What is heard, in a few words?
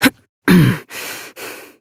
Horse Dismount Adult; Duviri